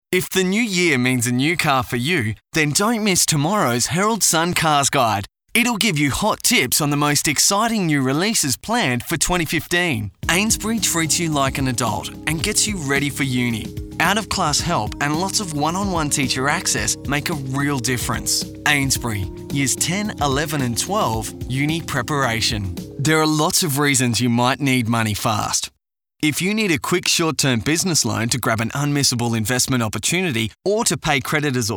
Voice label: Straight VO